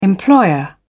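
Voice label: "employer", British English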